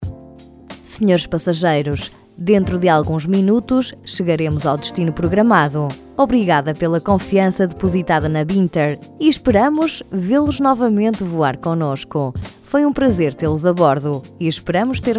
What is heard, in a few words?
portuguese voiceover airline message mensaje aviones